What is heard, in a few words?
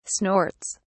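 "snorts", British English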